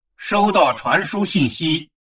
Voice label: advisor notification incoming transmission, chinese; Stellaris